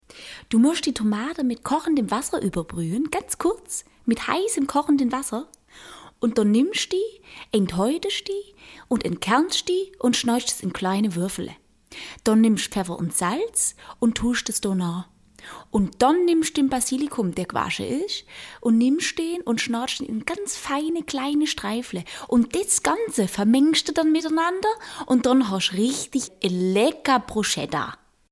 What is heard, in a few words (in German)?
schwäbisch audio